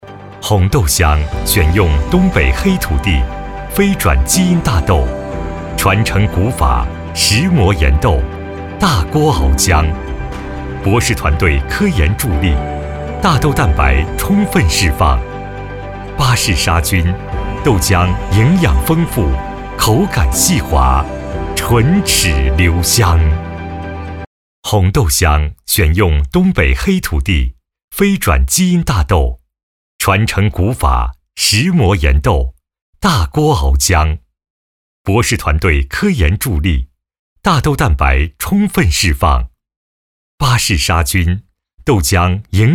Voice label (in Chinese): 男 广告; 虹豆香